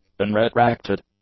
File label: "unretracted", english; adjectives